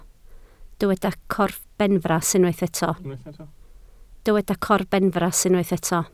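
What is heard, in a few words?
"corbenfras" Welsh, spoken word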